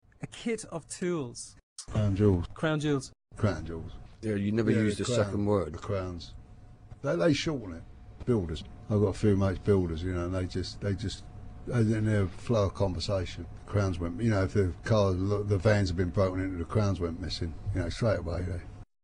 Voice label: cockney; crowns; speech; dialects

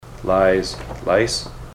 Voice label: lies lice, ontario

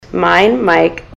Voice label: mine, ontario

